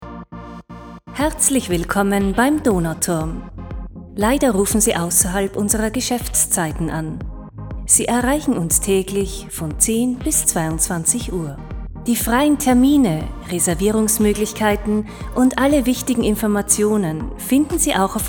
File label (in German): Ausserhalb der Oeffnungszeiten, Telefonansage; Telefonansage Wiener Donauturm